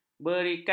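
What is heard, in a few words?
"berkat", Malay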